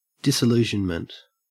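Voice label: "disillusionment", Australian English; pronunciations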